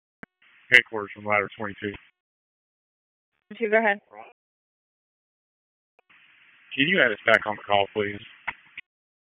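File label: Fire Department headquarters Dispatch, P25; RFD; wake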